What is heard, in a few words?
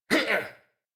Abil Jump, en; Warframe; New War; Teshin